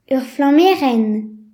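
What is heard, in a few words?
"torch", Breton; gcompris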